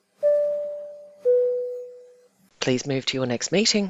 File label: airplane chime please move to your next meeting